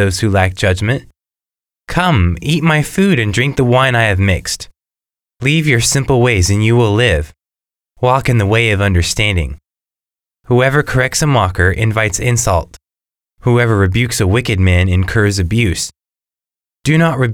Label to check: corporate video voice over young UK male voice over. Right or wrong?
Wrong